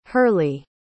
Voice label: "hurly", pronounce

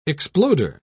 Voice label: "exploder", pronounce

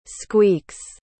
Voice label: "squeaks", pronounce

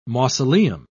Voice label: "mausoleum", pronounce

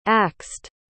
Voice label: "axed", pronounce

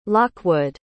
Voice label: "lockwood", pronounce

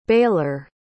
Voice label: "baler", pronounce

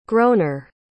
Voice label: "groaner", pronounce

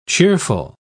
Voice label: "cheerful"

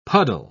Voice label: "puddle", pronounce

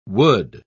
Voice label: "wood", pronounce

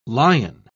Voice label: "lion", pronounce

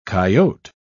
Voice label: "coyote", pronounce

